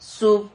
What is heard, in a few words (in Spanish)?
"sub", Silabas